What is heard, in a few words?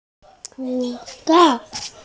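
"shu1cai4", Mandarin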